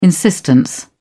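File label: "insistence", British English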